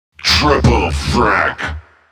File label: kills, announcer, male